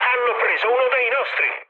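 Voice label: Italian aircraft destroyed mood high, speech; War Thunder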